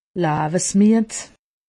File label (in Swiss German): "lawesmied", alsace; medecine